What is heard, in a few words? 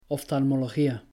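"oftalmología", Spanish; pronouncing c g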